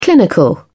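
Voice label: "clinical", British English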